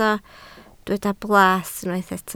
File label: "blas", Welsh